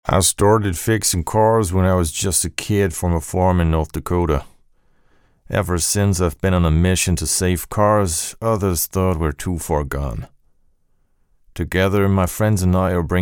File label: english tv shows; actor de doblaje profesional